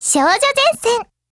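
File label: Japanese; P226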